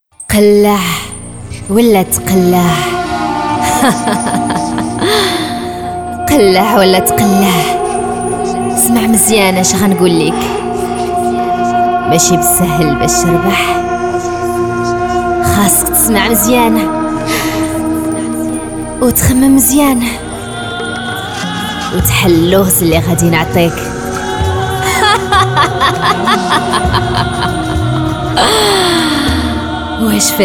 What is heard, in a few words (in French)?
jeu radio arabe dialectal marocain; JOURNALISTE, ANIMATRICE RADIO, COMÉDIENNE VOIX